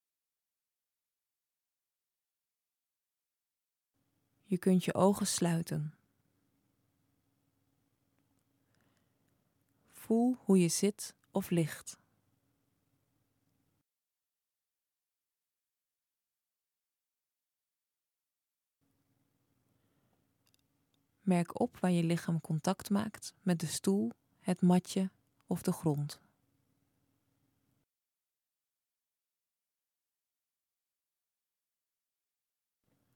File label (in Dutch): gedachtenmeditatie